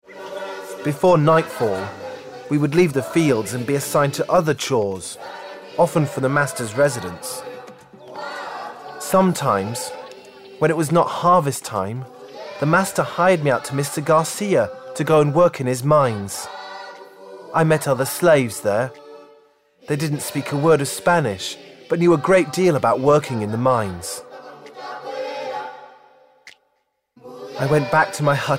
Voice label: documentaire esclavage; UNESCO

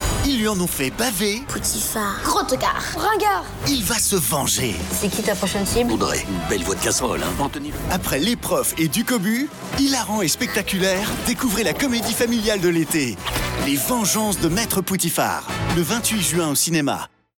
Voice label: Voix Bande annonce film familial

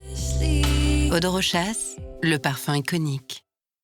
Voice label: pub francais; Eau de Rochas

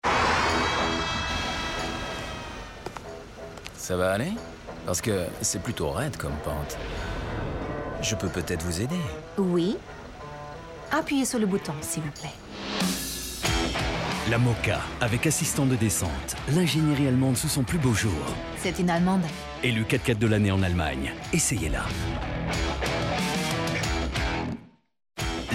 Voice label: voix off; OPEL

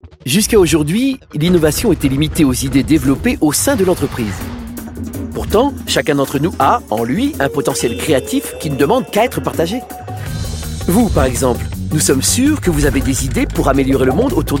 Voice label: Voix Inventive

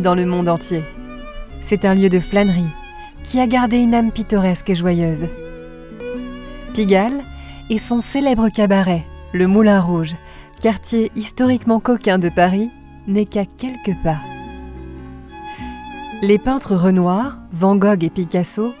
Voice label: audioguide MONTMARTRE; voix-off

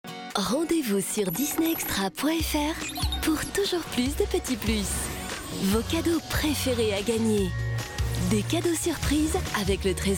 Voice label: complice souriante; DISNEY EXTRA

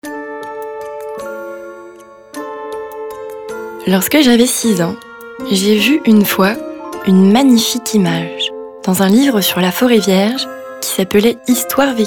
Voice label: Audiolivre; Le Petit Prince

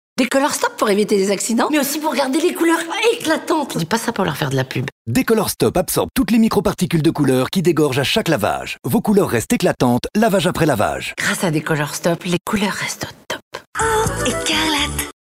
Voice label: promo portee; decolorstop

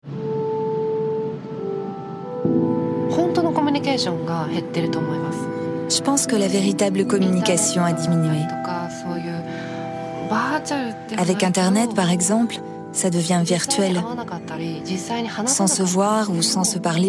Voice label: doc voice over douce confidentielle; L EMPIRE DES SANS

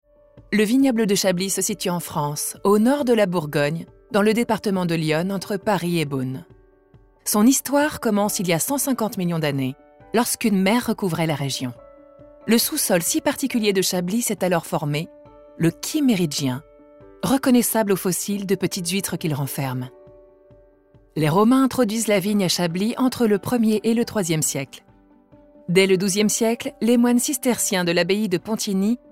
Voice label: Commentaire; voix-off; Chablis

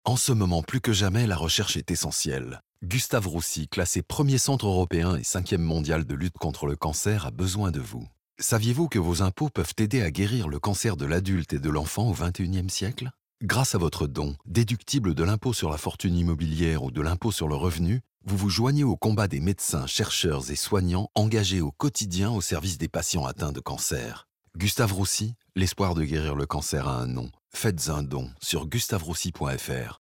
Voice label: mix radio; GUSTAVE ROUSSY

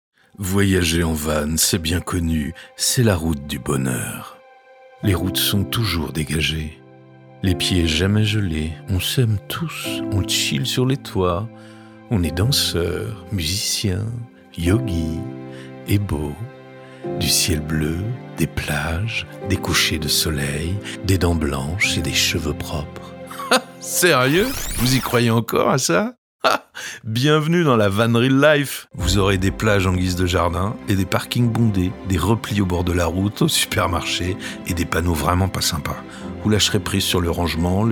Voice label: Pub TV; Wikicampers

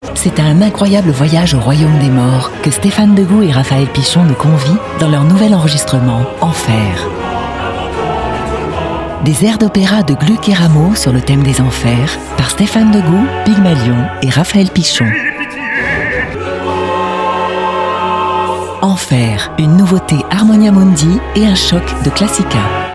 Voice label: PUB radio classique elegant; Harmonia Mundi

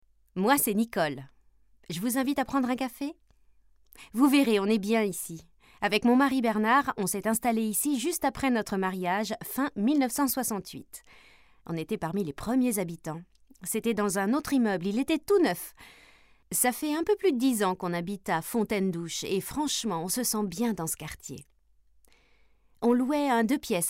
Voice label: audioguide; Dijon

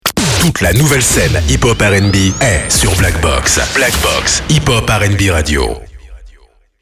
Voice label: Habillage TV Radio FR; Blackbox Nouvelle Scene

